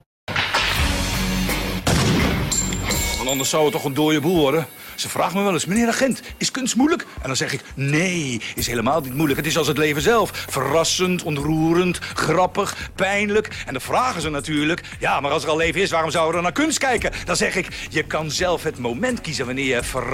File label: voice demo Gronings accent